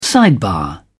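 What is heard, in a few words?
"sidebar", British English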